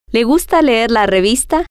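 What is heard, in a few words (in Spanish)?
le gusta leer revista, Spanish, female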